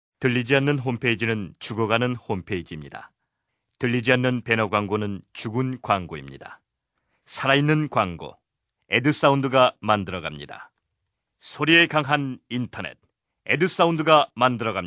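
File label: Korean male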